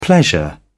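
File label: "pleasure", British English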